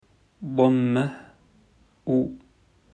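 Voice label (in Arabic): "ضمة", Arabic; quiz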